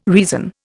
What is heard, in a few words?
"reason", Indian